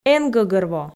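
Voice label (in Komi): "эн гӧгӧрво", Komi, female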